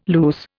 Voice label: "loose", Indian